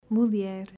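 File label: "Mulher", Portuguese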